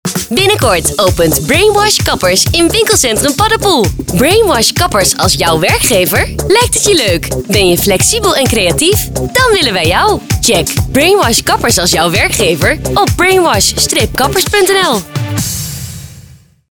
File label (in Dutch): Commercial; Brainwash Kappers Vacature 2015 Paddepoel